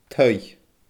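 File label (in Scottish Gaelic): "taigh", Scottish Gaelic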